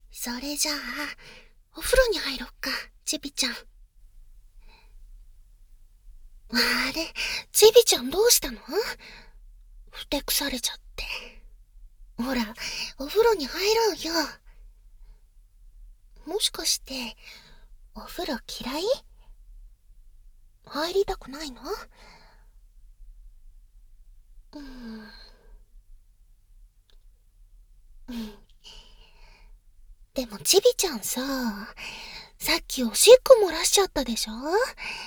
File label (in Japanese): お風呂, ASMR